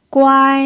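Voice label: "guai" first tone, pinyin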